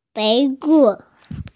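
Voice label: "fei wo" Chinese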